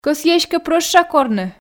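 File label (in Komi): кӧсйи эськӧ прӧща корны, Komi, female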